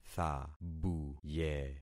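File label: "thabuye", concatenated syllables; Affixing experiment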